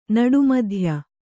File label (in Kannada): "ನಡುಮಧ್ಯೆ", Kannada